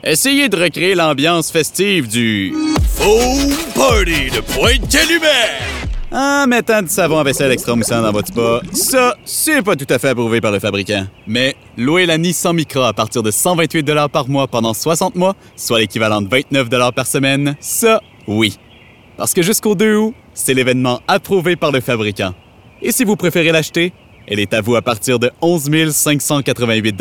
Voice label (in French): pub annonceur humour fr qc naturel amical energique promo medium; nissan